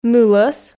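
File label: "нылӧс", Komi, female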